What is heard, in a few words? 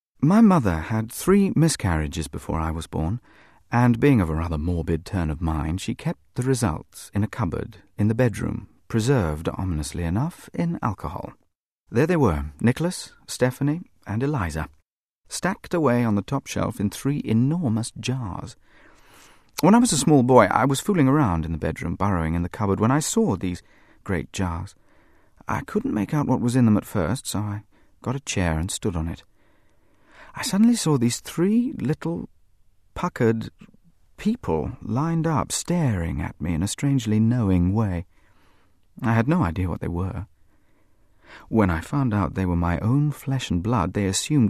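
drama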